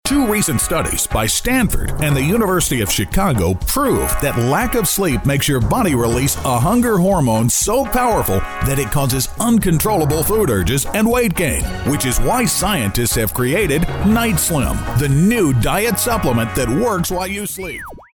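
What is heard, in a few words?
American English, male, commercial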